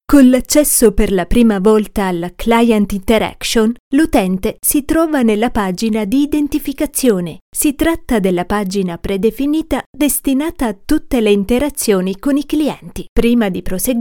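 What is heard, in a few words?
Italian, female, eLearning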